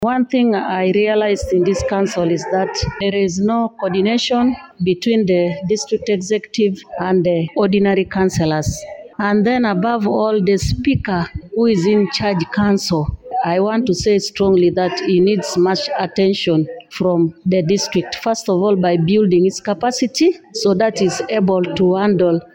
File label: english byte